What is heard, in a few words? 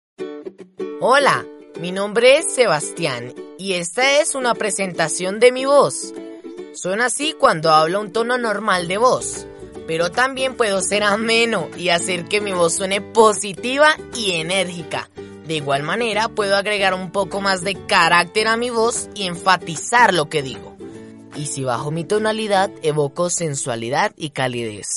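Mexican Spanish, male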